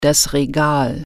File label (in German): "shelf", German